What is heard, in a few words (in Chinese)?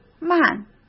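"慢", Mandarin Chinese; chinese-flashcards; hsk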